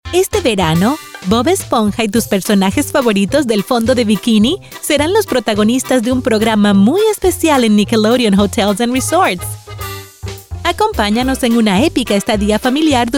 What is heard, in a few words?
spanish latin american commercial